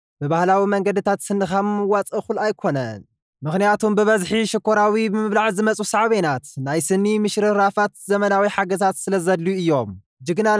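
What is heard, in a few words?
Tigrinya, male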